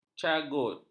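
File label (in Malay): "cagut", Malay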